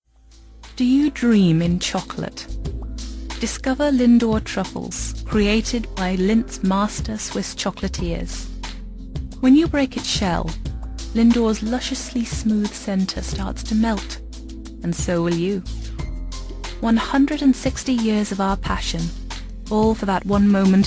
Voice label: commercials